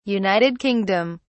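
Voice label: "United Kingdom", British English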